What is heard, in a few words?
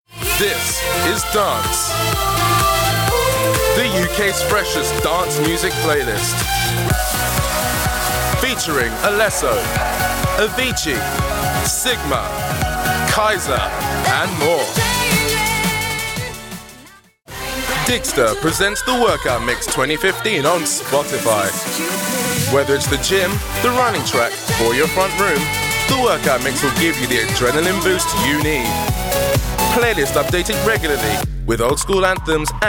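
English UK commercial; GoLocalise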